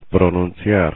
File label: "pronunciar", Spanish; infinitive